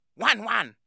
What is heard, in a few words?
japanese dog